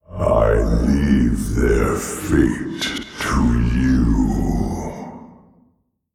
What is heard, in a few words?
Male Old God Creature